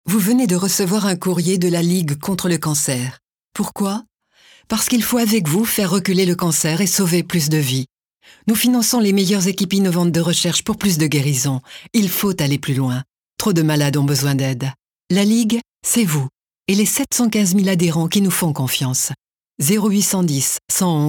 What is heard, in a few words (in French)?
Pub radio; Ligue contre le cancer